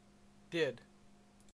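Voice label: "did", Canadian English; pronunciations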